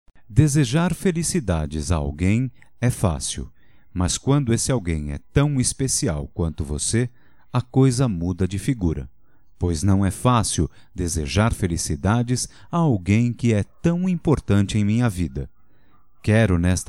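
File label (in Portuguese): Anivers neutro, Só Voz, masculino; Telemensagens; Telemensagens 2017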